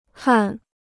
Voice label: "焊", Chinese